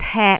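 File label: "tek3", Cantonese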